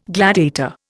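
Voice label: "gladiolus", Indian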